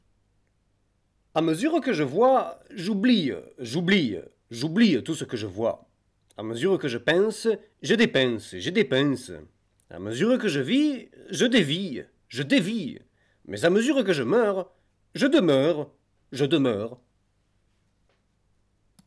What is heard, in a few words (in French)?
accent provencal; LOFT VOICES